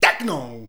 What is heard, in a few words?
"TEKNO", SHOUTS; Best Service; Hyper Dance